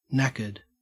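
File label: "knackered", Australian English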